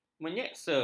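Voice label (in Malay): "menyeksa", Malay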